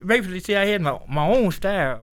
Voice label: SPOKEN, Blues Soul, TALK; Spectrasonics; Vocal Planet; EL SPOKEN